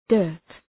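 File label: "dirt", English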